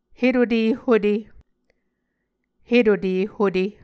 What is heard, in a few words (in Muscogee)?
"hetute hute", Muscogee